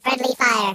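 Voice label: Same team, British; hedgewars; HedgewarsMobile; Robot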